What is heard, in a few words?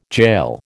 "jail", American